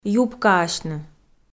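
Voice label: "юбкаасьны", Komi, female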